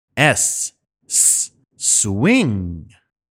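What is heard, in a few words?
S Swing, english pronunciation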